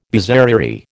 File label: "bizarrerie", American